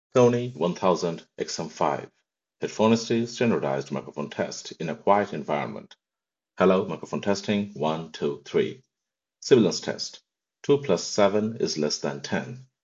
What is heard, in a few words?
quiet; Sony WF 1000XM5